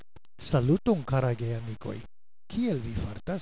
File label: esperant